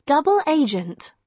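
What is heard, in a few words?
"double agent", British English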